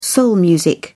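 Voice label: "soul music", British English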